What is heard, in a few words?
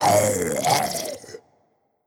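Skeleton Death voice; Skell Death V O2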